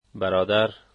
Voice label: "brother", Dari

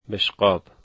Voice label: "plate", dari

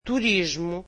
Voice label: portuguese tourism

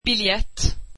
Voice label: ticket, swedish

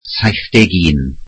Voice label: "seventy one", Welsh

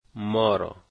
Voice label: "us", dari

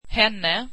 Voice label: swedish her

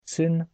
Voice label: polish son, polish

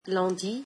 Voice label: french Monday

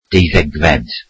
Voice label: "twelfth", Welsh

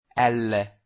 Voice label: italian alphabet l

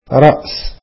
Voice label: arabic head

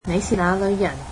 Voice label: cantonese Where are you from

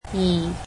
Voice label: "two", Cantonese

